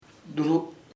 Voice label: hot, chamacoco; caliente